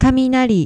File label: "kaminari", Japanese